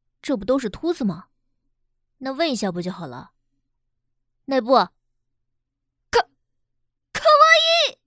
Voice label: 女 角色 男孩子气女孩; 机械师

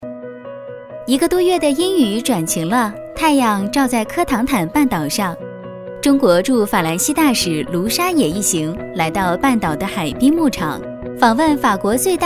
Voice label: 女 轻松专题 牛奶

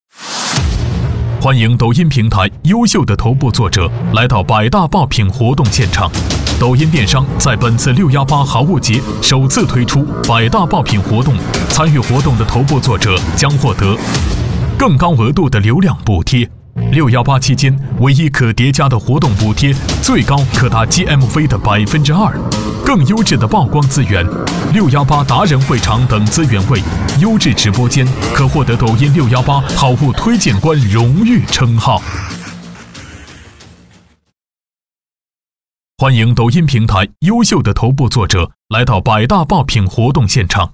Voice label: 男 广告 激情 有力; 抖音

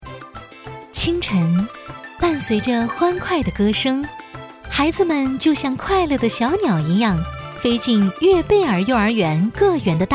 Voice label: 女 专题 幼儿园; 悦贝儿幼儿园

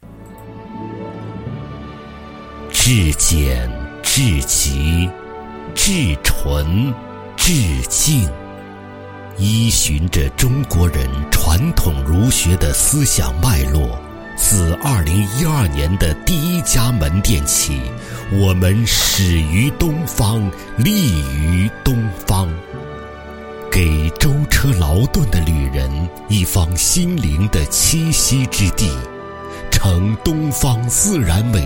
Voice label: 男,宣传片,商品推介

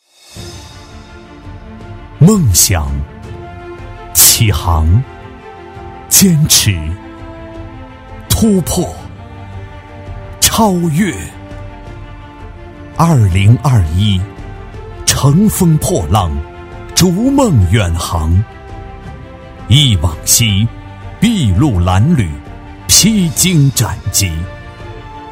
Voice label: 男 宣传片; 乘风破浪

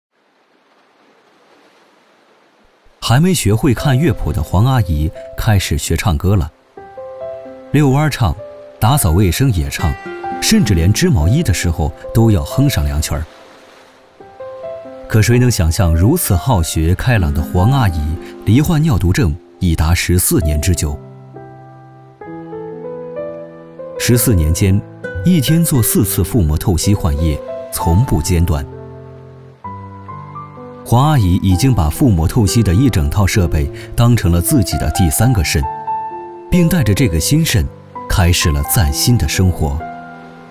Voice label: 男 专题记录 纪录; 尿毒症

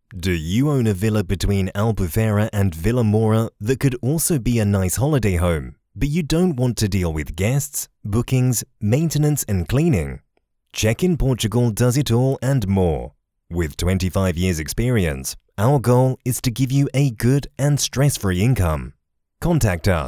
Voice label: 旅游宣传

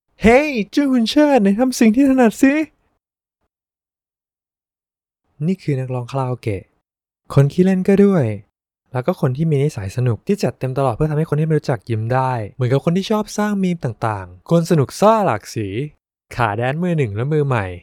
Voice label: 泰语男,年轻活泼

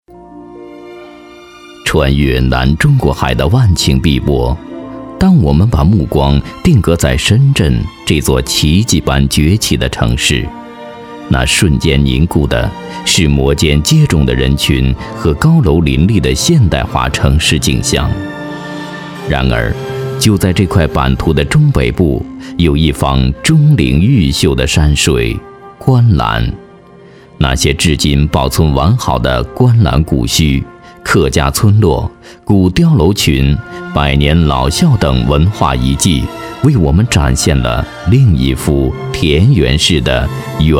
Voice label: 男 纪录片 磁性深沉

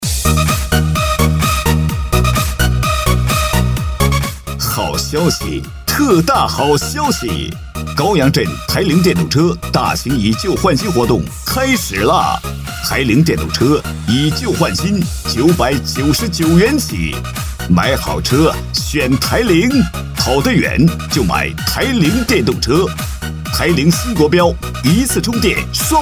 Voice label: 男 促销 电动车; 台铃电动车

